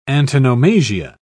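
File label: "antonomasia", British English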